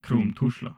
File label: Humanoid male greeting; Stellaris